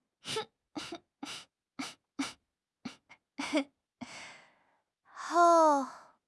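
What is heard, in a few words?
"Hou4", Chinese; HSK1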